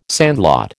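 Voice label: "sandlot", American English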